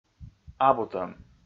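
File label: "абутӧм", Komi, male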